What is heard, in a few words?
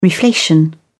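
"reflation", English, uk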